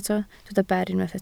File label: "ber", Welsh